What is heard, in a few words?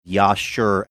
yah sure minnesotan